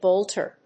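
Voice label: "bolter", English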